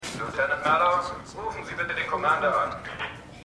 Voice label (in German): Revierdurchsage; The Wire